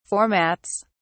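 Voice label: "formats", English, British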